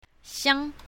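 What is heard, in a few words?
"xiang1", pinyin, south, female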